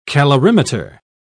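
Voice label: "calorimeter", British English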